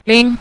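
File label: "ling", Mandarin, neutral tone; jī ling; clever / quick-witted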